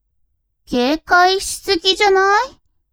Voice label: woman